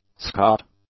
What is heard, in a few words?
"Scot", English